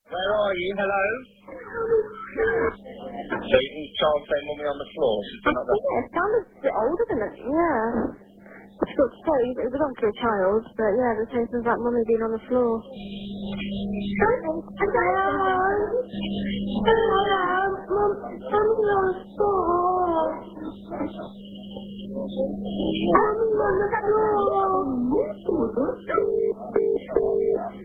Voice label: toddler calls 999; leicestershire